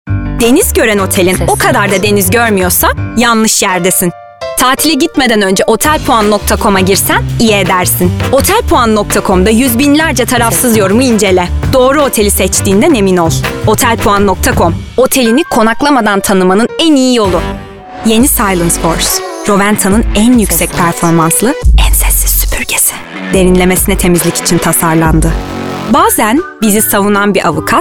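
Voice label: Turkish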